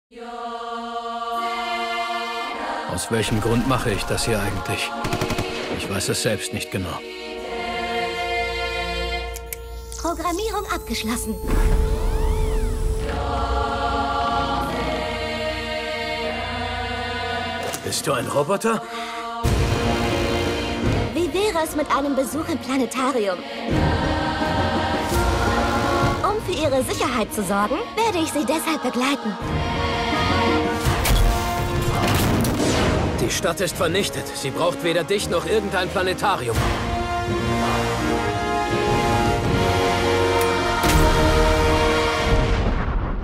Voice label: trailer; PLANETARIAN